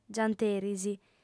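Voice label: "gianteris", female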